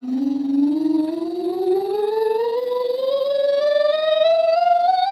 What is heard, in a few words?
voice semi